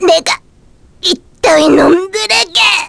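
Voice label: Vox Dead, Korean; Viska; kingsraid; voices heroes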